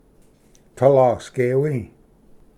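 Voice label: "talasgewi", Cherokee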